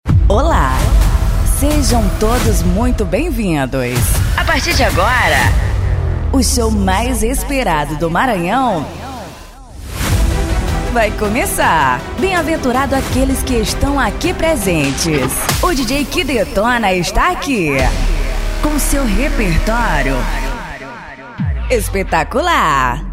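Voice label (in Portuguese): locutor, locutora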